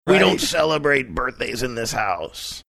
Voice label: saying we don't celebrate birthdays in this house gruff